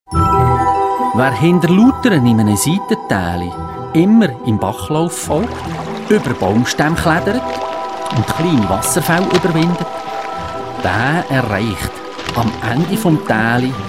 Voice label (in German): Sage, Radio, Sprecher; Grauflueh; Luthern; Radio Pilatus